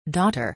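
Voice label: "daughter", English pronunciation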